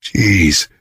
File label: "jeez", voice